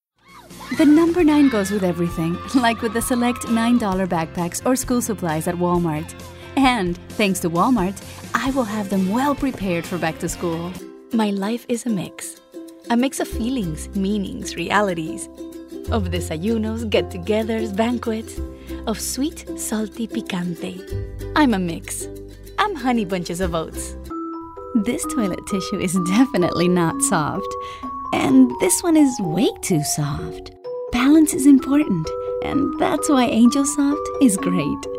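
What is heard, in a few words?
commercial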